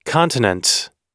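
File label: "continent", English; oxford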